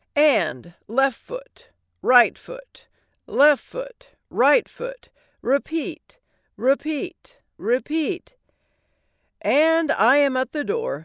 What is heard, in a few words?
Female Male character audio book sample